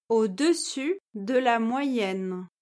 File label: "au-dessus de la moyenne", French